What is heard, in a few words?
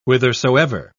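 "whithersoever", British English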